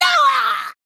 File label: Female Goblin Death